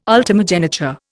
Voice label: "ultimogeniture", Indian

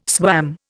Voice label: "swam", Indian

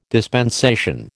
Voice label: "dispensation", American

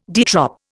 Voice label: "de trop", Indian

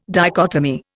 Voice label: "dichotomy", Indian

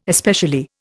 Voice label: "especially", Indian

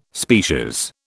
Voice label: "species", British

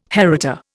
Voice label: "heritor", Indian

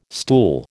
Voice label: "stool", American

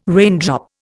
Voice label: "raindrop", Indian

